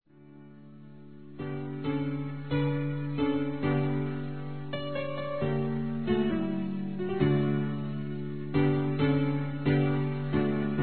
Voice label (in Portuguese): Aniversário Compadre Masculino; Aniversários; Aniv Familiar